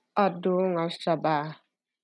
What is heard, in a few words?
Ilocano